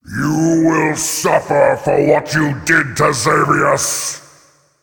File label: Male Demon; Mannoroth; Malfurion